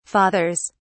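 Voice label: "fathers", British English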